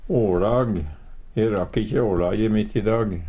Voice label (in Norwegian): "åLag", Numedalsmål; E rakk ikkje åLaje mitt i dag.